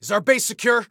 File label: Team Base Secure, Soldier Male